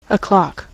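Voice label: "o clock", American English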